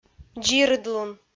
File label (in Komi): "дзирыдлун", Komi